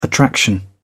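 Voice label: "attraction", British English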